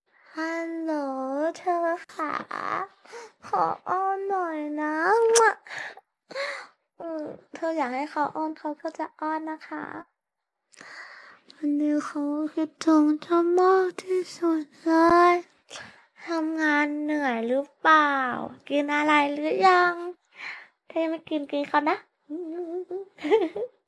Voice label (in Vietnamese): tiếng con gái nói giọng dễ thương